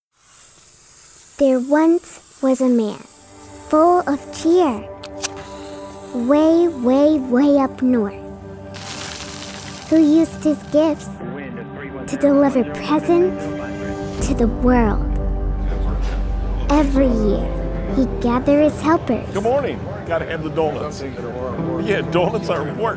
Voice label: Corporate Narration VO